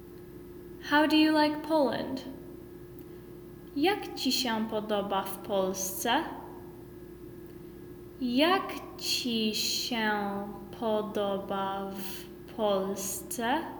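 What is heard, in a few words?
How do you like Poland, Polish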